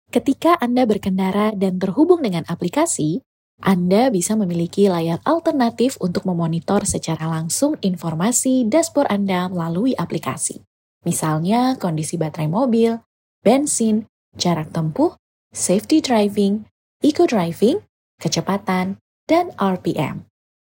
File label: CASUAL INDONESIA, calm, warmth and charismatic, but very friendly